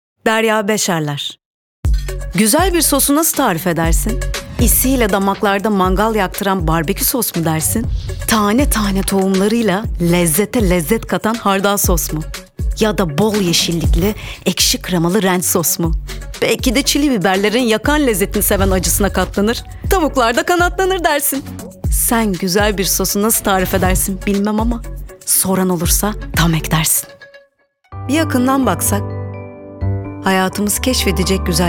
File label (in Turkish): turkce kadin